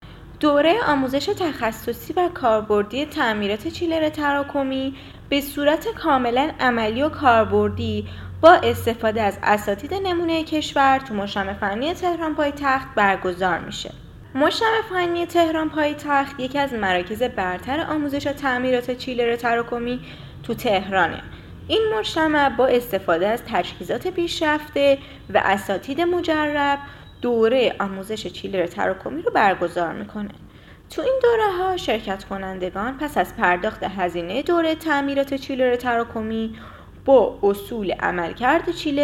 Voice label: podcast chiller